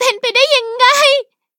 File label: defeat, th; Cookie Run